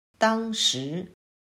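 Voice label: "当时", Chinese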